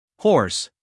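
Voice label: "horse", American English, male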